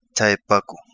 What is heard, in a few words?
Kapingamarangi; low Tide